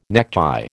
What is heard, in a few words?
"necktie", American